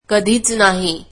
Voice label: marathi never

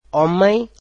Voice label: "man", Portuguese

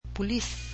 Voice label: "police", cebuano

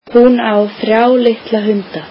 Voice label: icelandic she has three small dogs

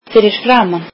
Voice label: icelandic in front of

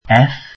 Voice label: welsh alphabet ff

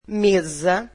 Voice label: portuguese table

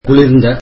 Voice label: tamil cold

